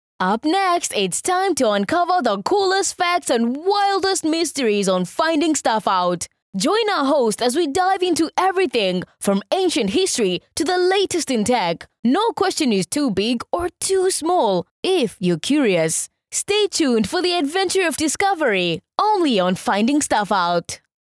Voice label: English Promo